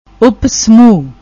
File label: "up shumu", Punjabi